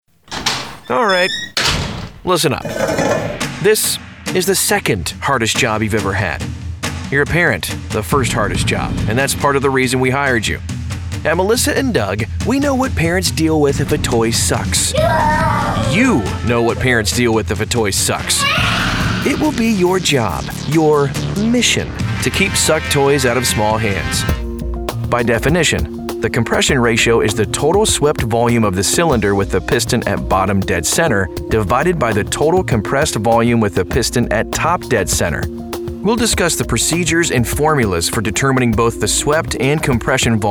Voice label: male english usa e learning compilation

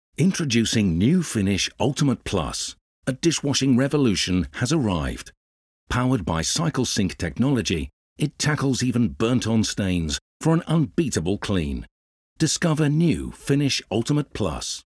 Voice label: male English commercial; Finish Ultimate Plus; English southern accents

